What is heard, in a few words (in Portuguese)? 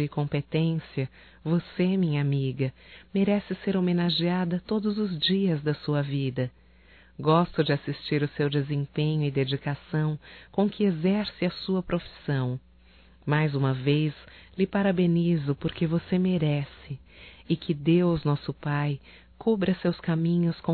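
Dia Secretária Feminino, Só Voz, fem; Telemensagens; DATAS COMEMORATIVAS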